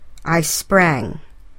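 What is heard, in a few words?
"I sprang", English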